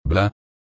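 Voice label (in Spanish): "bla", Spanish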